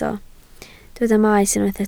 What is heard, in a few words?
"maes", Welsh